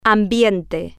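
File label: "ambiente", Spanish, female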